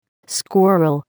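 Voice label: "squirrel", English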